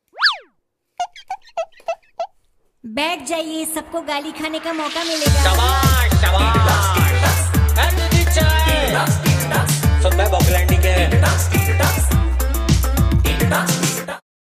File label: funny ringtone; BB Ki Vines; Titu Talks